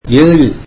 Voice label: tamil seven